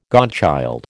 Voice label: "godchild", American

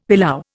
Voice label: "pilau", Indian; Dictionary

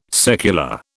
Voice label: "secular", British

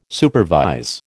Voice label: "supervise", American

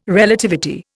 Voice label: "Relativity", Indian

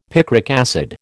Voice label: "picric acid", American

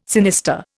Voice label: "sinister", Indian

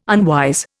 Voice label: "unwise", Indian

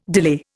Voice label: "delay", Indian

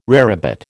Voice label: "rarebit", American

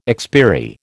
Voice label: "expiry", American

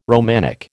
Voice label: "Romanic", American

